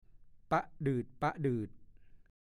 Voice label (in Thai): "ปะดืด ๆ", ภาษาโคราช; (ปะดืดปะดืด) หายใจถี่คล้ายจะสิ้นใจ